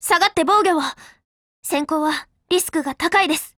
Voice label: DEFENSE, JP; CZ100